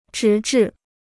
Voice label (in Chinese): "直至", Chinese